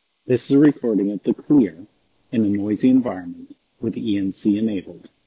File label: Elevoc Clear Noisy ENC